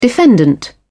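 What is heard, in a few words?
"defendant", British English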